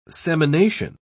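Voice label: "semination", English, British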